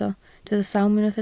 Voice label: "sawm", Welsh